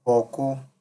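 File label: "borku", Nigerian Pidgin, male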